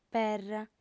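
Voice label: "perra", female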